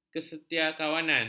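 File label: "kesetiakawanan", Malay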